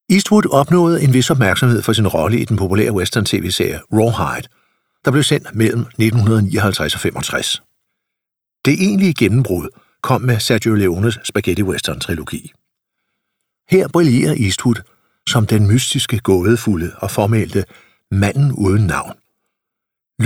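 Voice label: Danish Audiobook Male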